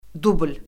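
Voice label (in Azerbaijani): "dubl", Azerbaijani; Orfoqrafiya Lüğəti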